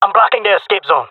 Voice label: guarding escape zone, jungle male; player vo; Counter Strike Model Pack; CS:GO